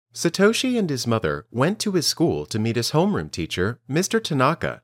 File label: Dictation